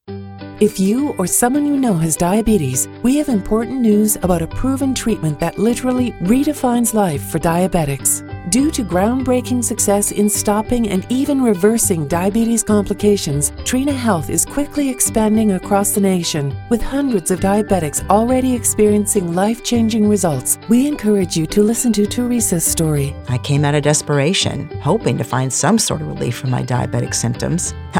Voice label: Testimonial Anglo female